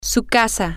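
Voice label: your house, spanish, female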